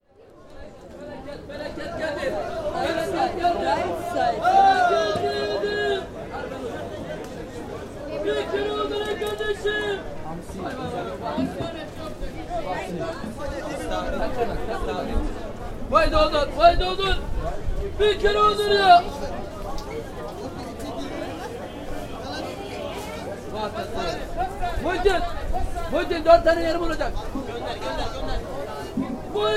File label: lokum vendor, çarşısı; misir